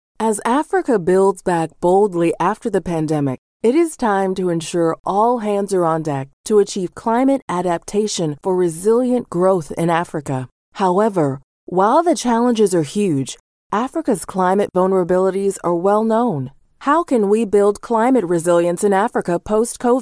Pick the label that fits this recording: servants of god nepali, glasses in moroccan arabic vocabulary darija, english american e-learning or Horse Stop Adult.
english american e-learning